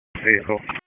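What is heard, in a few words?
Dispatch, p25; WSO DISP 1; wake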